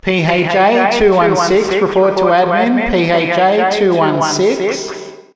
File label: Announcement